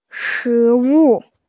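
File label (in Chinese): "shi2wu4", Mandarin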